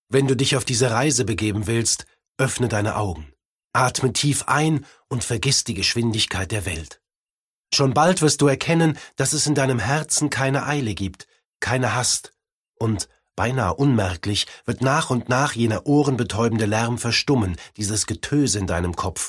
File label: male german audioguide; ideasonora